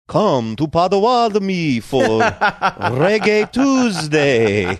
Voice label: saying come to potawatomi for reggae tuesday dracula like